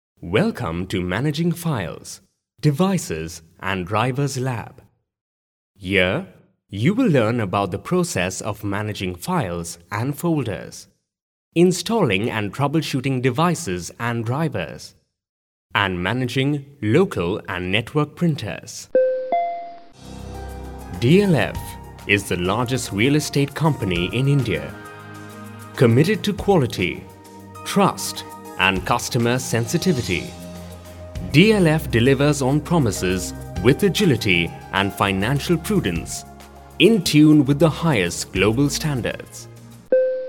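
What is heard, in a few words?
English Indian Male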